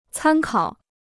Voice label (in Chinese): "参考", Chinese